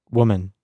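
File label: "woman", American English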